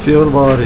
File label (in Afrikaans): "februarie", Afrikaans